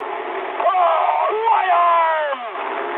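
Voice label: damaged pilot mood high, speech English; War Thunder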